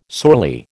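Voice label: "sorely", American English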